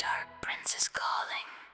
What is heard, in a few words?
wisper; Dark Prince is calling